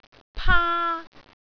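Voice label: "paa1", Cantonese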